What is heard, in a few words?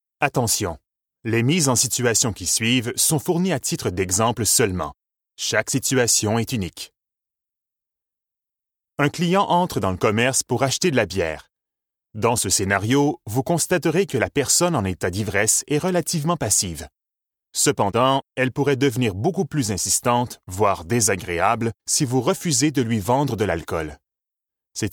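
French Canadian male Explainer; voice over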